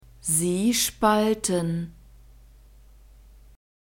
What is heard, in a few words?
"sie spalten", German; verbs present tense irregular